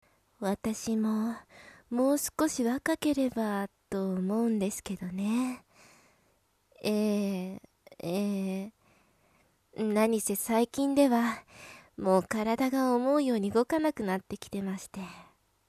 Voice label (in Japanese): syorou jyosei